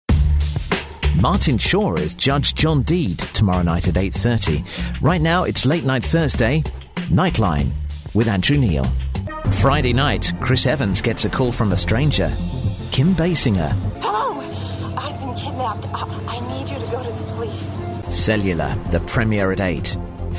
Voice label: continuity